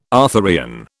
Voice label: "Arthurian", British

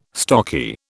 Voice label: "stocky", British

